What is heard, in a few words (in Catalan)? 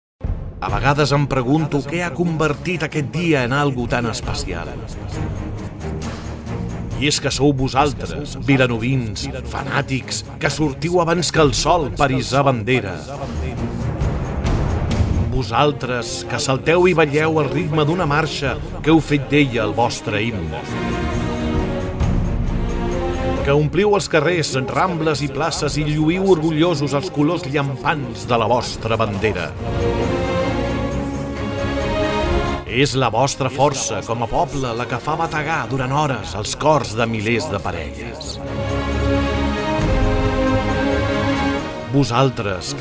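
Veu en off vídeo epic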